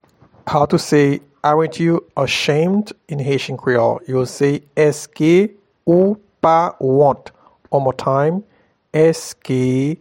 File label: Arent you ashamed in Haitian Creole, Eske ou pa wont